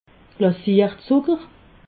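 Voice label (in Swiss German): "glazier zucker", Alsatian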